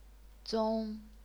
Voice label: "zong1", chinese pinyin